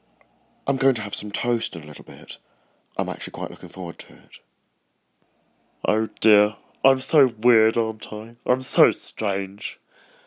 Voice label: odd voices